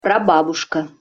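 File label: "prababuska", Russian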